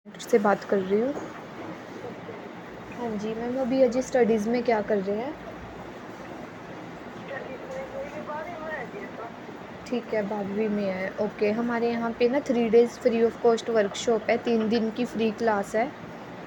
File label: call recording